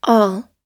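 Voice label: "all", US English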